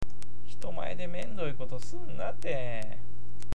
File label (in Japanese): "mendoi", awaji ben